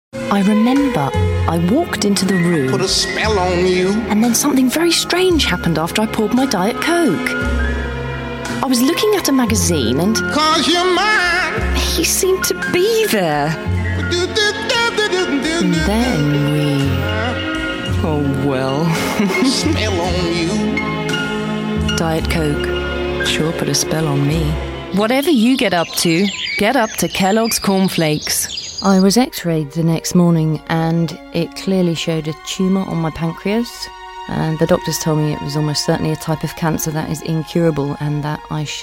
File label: female english commercial compilation; English southern accents